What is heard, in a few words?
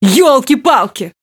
Speech Quick Commands Caramba